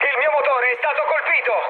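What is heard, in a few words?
Italian damaged engine mood med, speech; War Thunder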